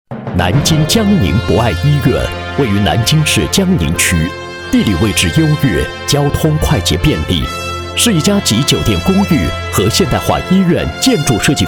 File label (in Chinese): 男 医院专题介绍 浑厚